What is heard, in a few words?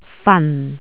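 "fan4", Cantonese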